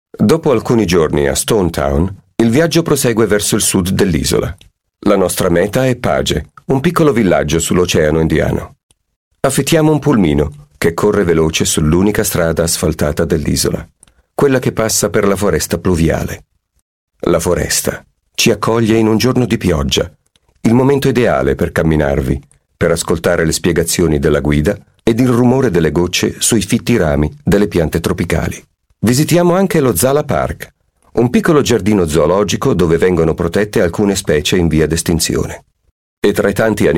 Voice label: italian voice over male narrator